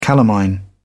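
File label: "calamine", English, British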